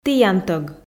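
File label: "тіянтӧг", Komi, female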